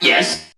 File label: "yes", robot